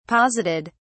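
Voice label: "posited", British English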